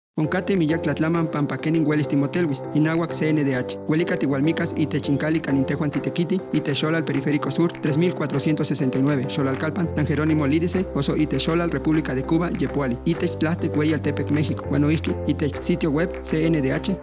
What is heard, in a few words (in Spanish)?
Spot nahuatl; Campanas; CNDH